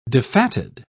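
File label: "defatted", British English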